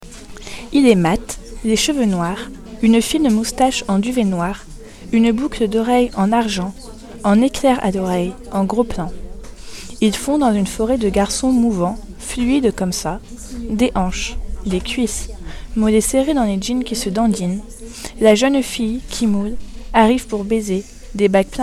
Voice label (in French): Lecture